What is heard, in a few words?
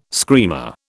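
"screamer", British English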